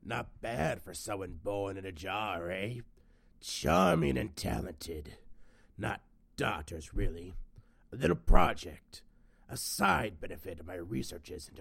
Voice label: dark elf voice auditions; divayth fyr

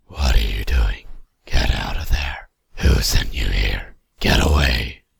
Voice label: dark elf voice audition; balyn omavel

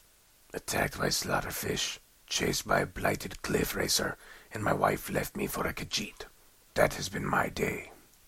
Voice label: dark elf, voice auditions

